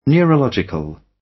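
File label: "neurological", British English; The arts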